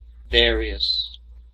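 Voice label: "various", English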